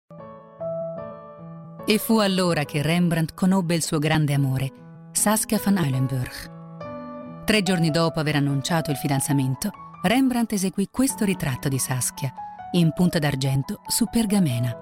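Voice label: italian female voice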